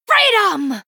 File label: Female Human Attack